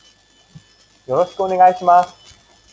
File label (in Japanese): 音感情